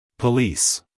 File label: "police", us male